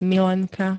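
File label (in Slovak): "milenka", Slovak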